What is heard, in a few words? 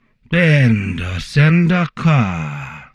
Humanoid male; stellaris; Humanoid portrait